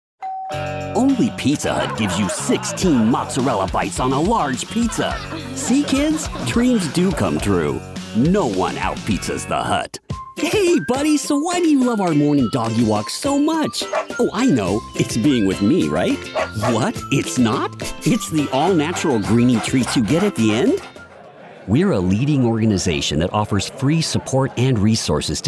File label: Commercial; voice over; Edge Studio